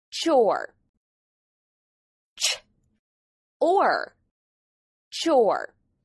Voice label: "chore", English